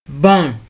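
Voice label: "born", Nigerian Pidgin, male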